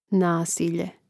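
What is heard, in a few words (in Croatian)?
"nasilje", Hrvatski; mreznik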